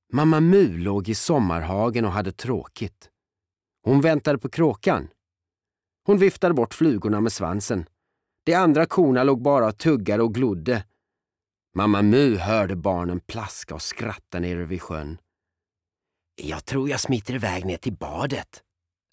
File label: Swedish Childrens story